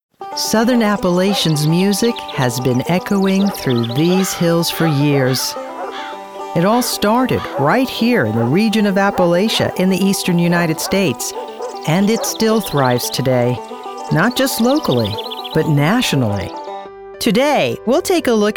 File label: Narration